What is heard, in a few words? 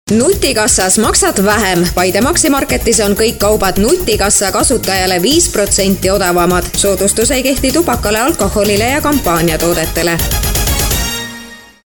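estonian female commercial; voice demos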